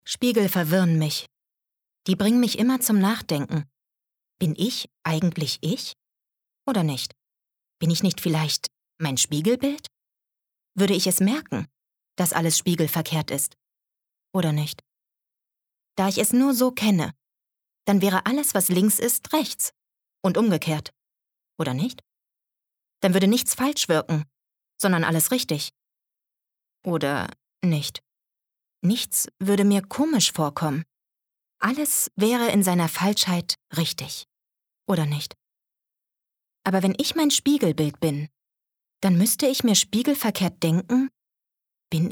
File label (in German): Innerer Monolog